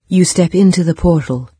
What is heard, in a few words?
portal, en-UK, NARRATOR; Fate